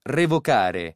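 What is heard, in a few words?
"revocare", Italian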